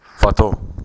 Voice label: fato, Bambara

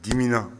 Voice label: "dimina", Bambara

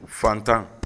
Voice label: fɛnntan, Bambara

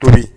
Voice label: "tobi", Bambara; actions

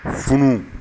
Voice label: funu, Bambara

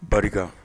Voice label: "barika", Bambara

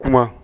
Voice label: "kuma", Bambara; actions

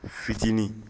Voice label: "fitini", Bambara

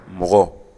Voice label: "mogo", Bambara; gens

